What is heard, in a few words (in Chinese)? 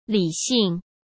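"理性", Mandarin Chinese; hsk; chinese-flashcards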